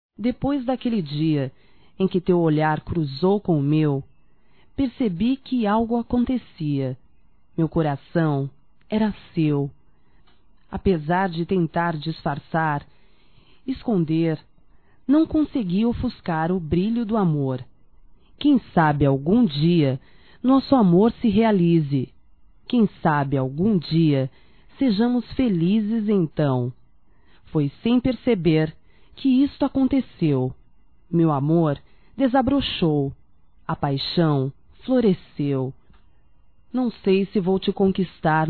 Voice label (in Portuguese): ROMANTICA(F), Românticas, fem